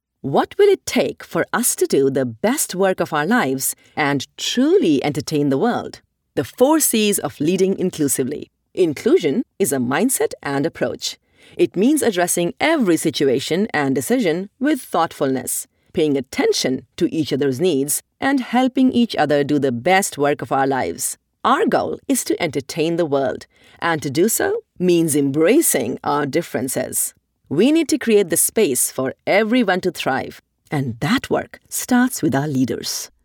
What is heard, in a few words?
Corporate